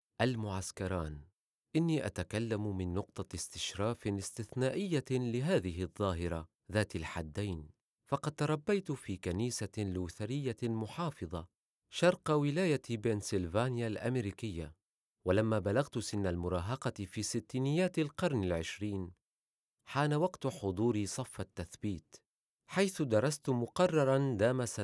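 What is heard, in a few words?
arabic syrian male narration; voice demos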